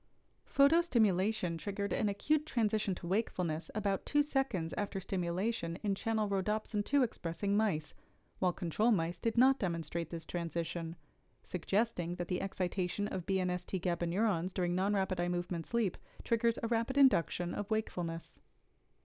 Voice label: Voiceover